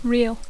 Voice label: "real", English; adjectiu; autèntic; de debò

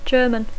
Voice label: "German", English; alemany

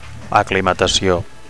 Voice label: "aclimatacio", Catalan; (f) acclimatization; (f) acclimation

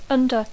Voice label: "under", English; sota (de)

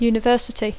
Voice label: "university", English; universitat; pl. universities; adjectiu; universitari

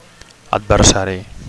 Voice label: "adversari", Catalan

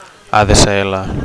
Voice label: "ADSL", Catalan; (f) ADSL

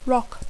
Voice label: "rock", English

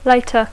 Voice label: "later", English

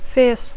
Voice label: "fierce", English

